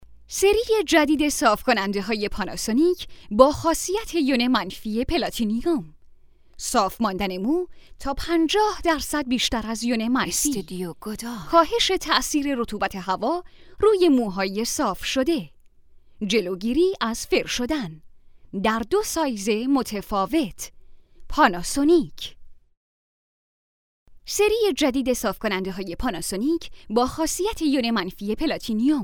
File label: commercial